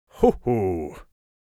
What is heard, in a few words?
satisfied, Mage Voice; Mage Voice Pack